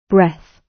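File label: "breath", British English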